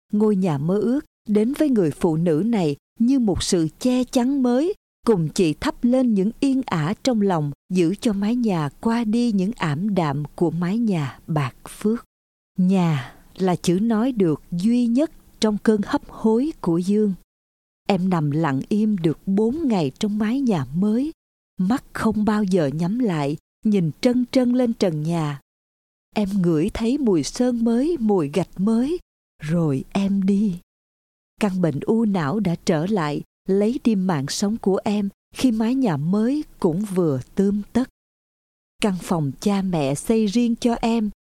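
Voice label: Vietnamese, General; GoLocalise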